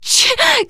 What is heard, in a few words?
horde attack, teen girl, survivor voice; source engine; left 4 dead 2